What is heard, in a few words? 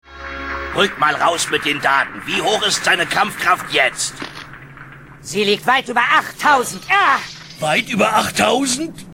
german